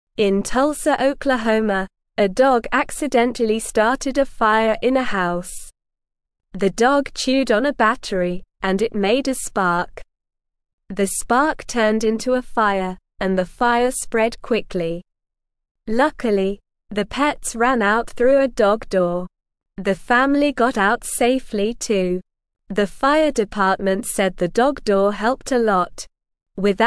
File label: English Beginner SLOW Reading; Dog Starts Fire But Everyone Gets Out Safe